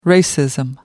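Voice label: "racism", English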